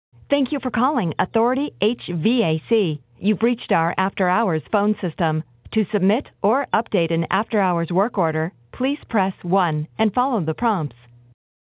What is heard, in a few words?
After Hours Message; Authority HVAC